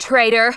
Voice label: "Traitor", Smooth Babe; Worms Armageddon

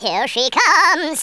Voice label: "Take cover", Wacky

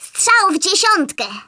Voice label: PERFECT, Speech, Polish; Worms Armageddon

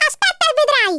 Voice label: JUST YOU WAIT, Italian; Worms Armageddon

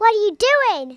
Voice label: traitor, Kidz; Worms Armageddon

